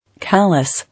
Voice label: "Callous", English

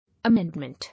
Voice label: "Amendment", English

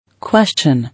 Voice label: "Question", English

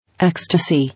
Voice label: "Ecstasy", English

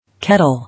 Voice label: "Kettle", English

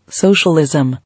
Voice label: "Socialism", English

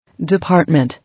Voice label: "Department", English

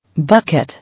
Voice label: "Bucket", English

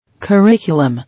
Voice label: "Curriculum", English

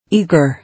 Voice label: "Eager" English

